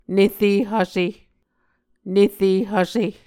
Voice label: "nere hvse", Muscogee